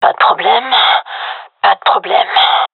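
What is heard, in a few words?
round lost, female; vo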